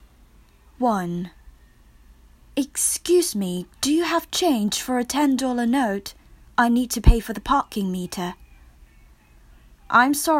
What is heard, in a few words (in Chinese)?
英语听力 英式与美式